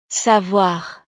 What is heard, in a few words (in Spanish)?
"savoir", frances